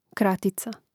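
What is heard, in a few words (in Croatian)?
"kratica" Croatian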